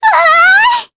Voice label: Happy, jp; Ophelia; kingsraid